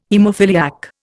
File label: "haemophiliac", Indian